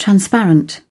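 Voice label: "transparent", British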